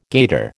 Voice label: "gaiter", American